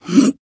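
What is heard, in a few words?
damaged, Japanese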